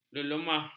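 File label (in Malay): "lemah", Malay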